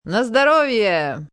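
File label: Congrats Russian F, female, Russian 'na zdorovie' (cheers); (human voice speaking); Talking Sound Effects; Sound Effects:Voice; VoiceBox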